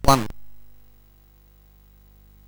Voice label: speech, sid CSG8580R5; Zyron